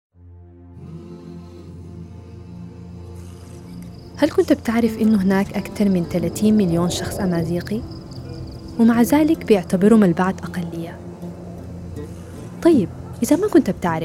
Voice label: AR Classical Arabic Sudanese Accent Podcast Promo; Majhool Podcast Promo